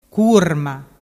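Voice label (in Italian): "curma", Vernazzese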